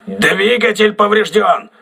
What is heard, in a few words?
engine damaged, crew ships russian